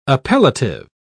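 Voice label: "appellative", British English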